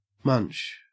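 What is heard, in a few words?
"munch", Australian English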